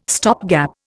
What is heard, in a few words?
"stopgap", Indian